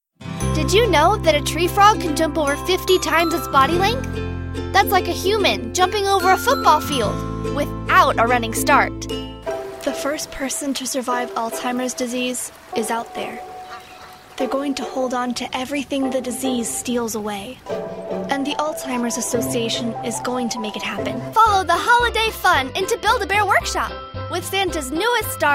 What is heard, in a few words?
kids commercial; From child to tween, from angel to attitude. Good to the last take.